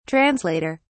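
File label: "translater", British English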